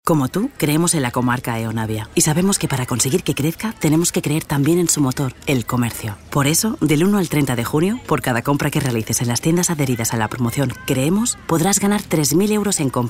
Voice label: female spanish bank comercial